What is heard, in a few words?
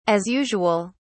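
"as usual", British English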